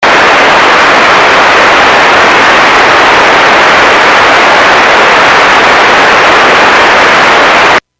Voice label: EMS, radio